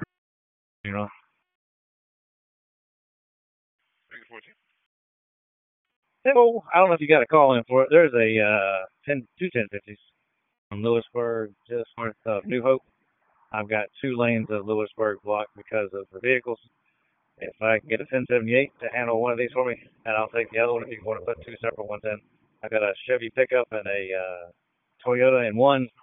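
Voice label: Police Northeast District Disp, radio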